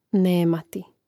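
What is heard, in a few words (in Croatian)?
"nemati", Hrvatski; mreznik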